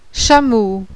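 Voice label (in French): "chameau", French; MAMMIFÈRE; animal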